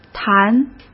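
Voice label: "坛" Mandarin Chinese; hsk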